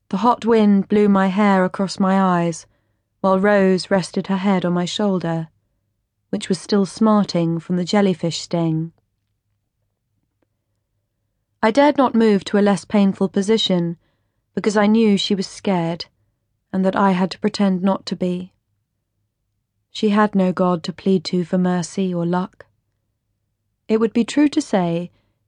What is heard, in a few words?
AUDIO BOOK (LIGHT & ENGAGING); HOT MILK